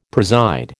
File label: "preside", American